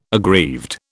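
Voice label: "aggrieved", British English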